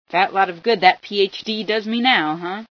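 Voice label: useless phd, female scientist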